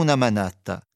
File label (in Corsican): “manata”, Rocca (sud)